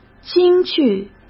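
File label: "京剧", Mandarin; chinese flashcards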